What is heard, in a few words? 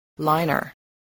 "liner", spelling